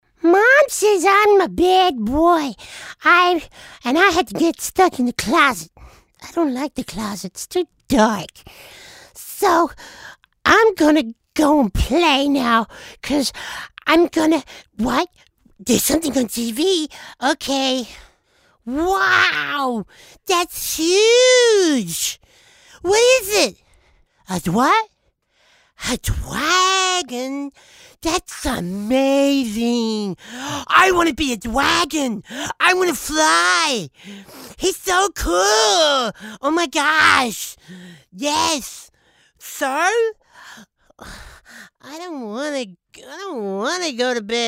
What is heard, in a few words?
Kid; voice over talent